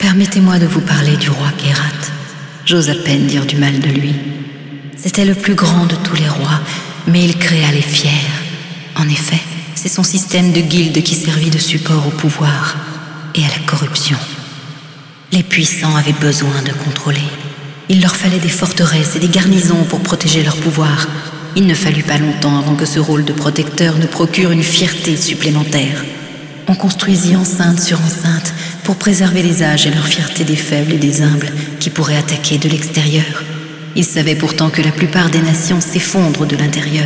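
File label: Speech french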